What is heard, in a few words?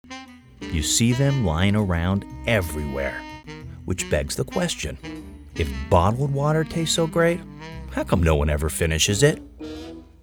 Commercial